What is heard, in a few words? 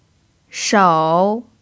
"shou3", pinyin